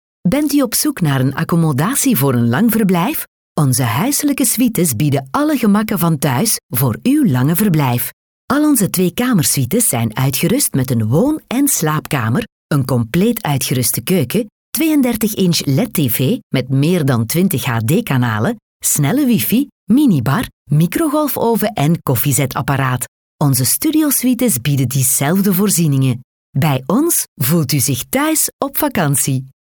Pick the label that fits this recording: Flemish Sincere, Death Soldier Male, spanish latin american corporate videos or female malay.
Flemish Sincere